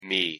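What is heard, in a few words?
pronunciation "me", English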